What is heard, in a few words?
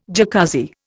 "Jacuzzi", Indian